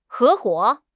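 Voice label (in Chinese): "合伙", Chinese; HSK; HSK6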